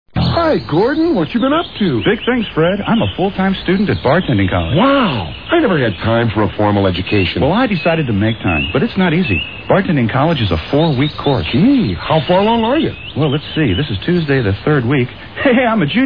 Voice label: Commercials; Freekin Weird Audio